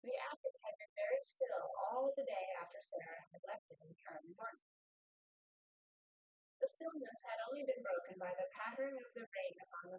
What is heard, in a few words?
female4 synthconv; SiSEC08; SiSEC underdetermined